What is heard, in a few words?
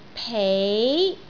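"pei2", Cantonese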